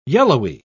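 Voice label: "yellowy", British English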